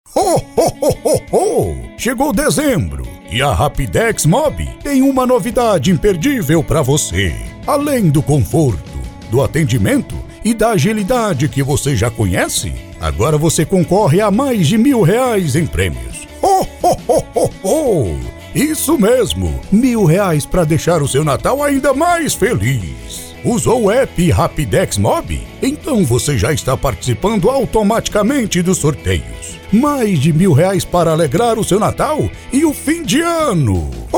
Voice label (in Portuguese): vinheta pra aplicativo de apps